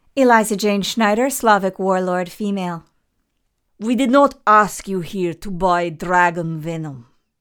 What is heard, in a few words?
SLAVIC WARLORD FEMALE